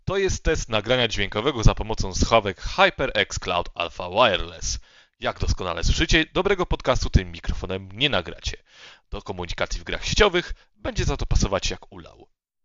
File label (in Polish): mikrofon nagranie testowe